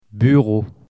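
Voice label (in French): "bureau" French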